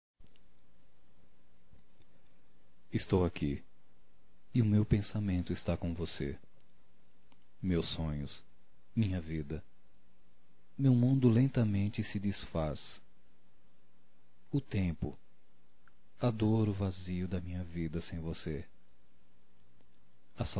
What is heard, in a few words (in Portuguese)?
Reconciliação Poesia Masculino